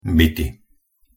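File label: "byty", Slovak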